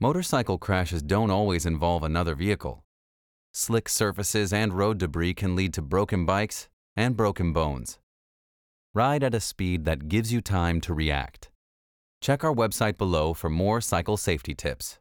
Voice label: Public Service Announcement